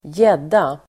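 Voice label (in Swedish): "gädda", Swedish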